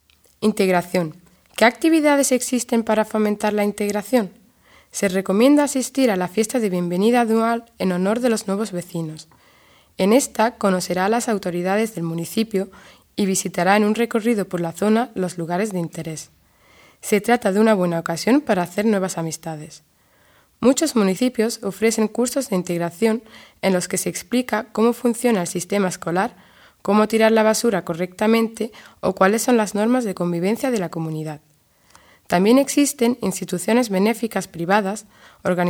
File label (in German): Erstinformation Audio Spanisch